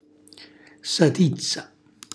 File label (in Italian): "satizza", Calabrese; cucina calabrese